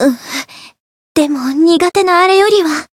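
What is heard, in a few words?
Voice encounter fear, Japanese; veina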